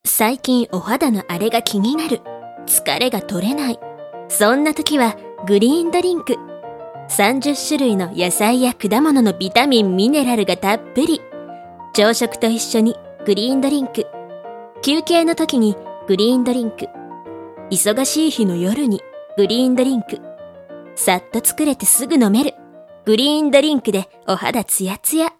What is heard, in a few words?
narration